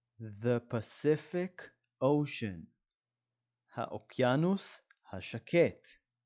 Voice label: "pacific ocean" – "האוקיינוס השקט"